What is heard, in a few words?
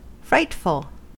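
"frightful", American English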